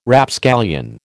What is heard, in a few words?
"Rapscallion", American